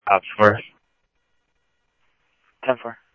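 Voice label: Mesa police department, scanner audio trunk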